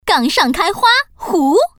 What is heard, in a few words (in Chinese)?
gangshangkaihua, putonghua woman; mahjong paohuzi